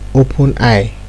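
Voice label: "open eye", Nigerian Pidgin, male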